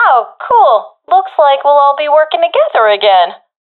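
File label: round won nocasualities, professional female; vo; agents; Source Model Packs; Counter Strike Model Pack; CS2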